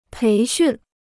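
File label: "培训", Chinese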